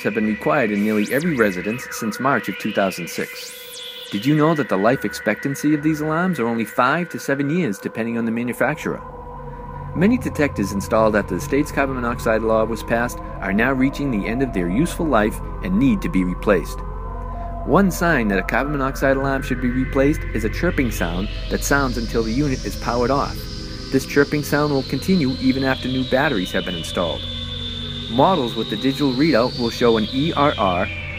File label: Fire Safety PSA; Donation PSAs; Beat The Beep